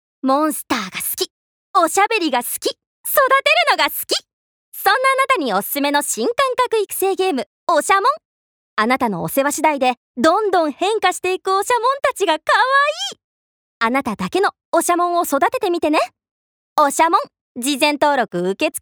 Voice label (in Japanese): ナレーション